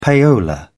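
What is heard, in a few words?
"payola", British English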